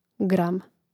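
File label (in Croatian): "gram", hrvatski; mreznik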